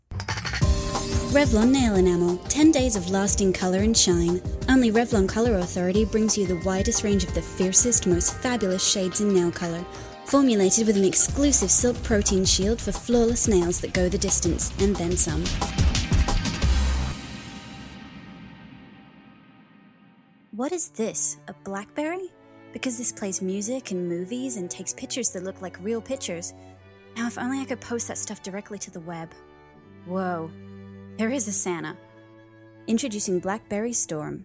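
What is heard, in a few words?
English USA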